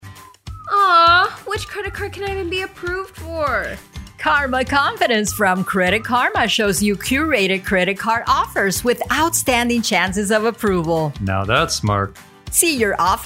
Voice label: Commercial, Female voices